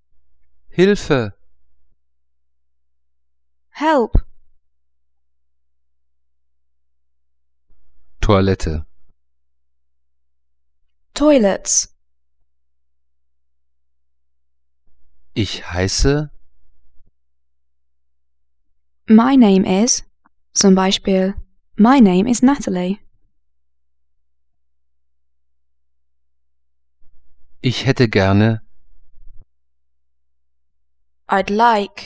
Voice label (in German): englisch